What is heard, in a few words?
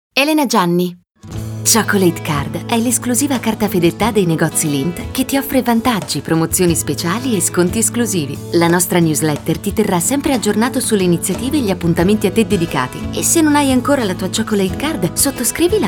female Italian commercial compilation